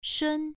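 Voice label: "shun" Mandarin Chinese, first tone, pronunciation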